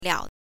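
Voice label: "liao", Mandarin Chinese, neutral tone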